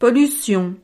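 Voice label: "pollution", French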